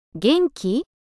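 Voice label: "元気？", Japanese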